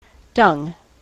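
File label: "dung", US English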